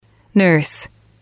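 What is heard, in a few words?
"nurse", English, female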